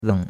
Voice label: "leng3", chinois; Cours de Chinois

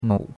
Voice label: "nou3", chinois; Cours de Chinois

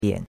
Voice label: "bian3", chinois; Cours-de-Chinois

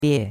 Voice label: "bie4", chinois; Cours-de-Chinois

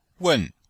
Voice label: "wen4", chinois; Cours de Chinois

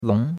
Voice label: "long2", chinois; Cours-de-Chinois

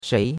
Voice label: "shei2", chinois; Cours-de-Chinois

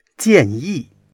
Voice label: "jian4yi4", chinois

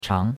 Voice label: "chang2", chinois; Cours-de-Chinois

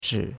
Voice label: "chi3", chinois; Cours-de-Chinois

